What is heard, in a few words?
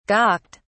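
"gawked", British English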